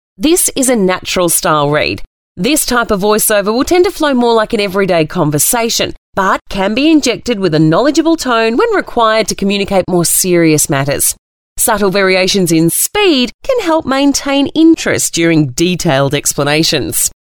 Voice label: on hold messages female